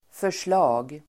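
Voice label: "förslag", Swedish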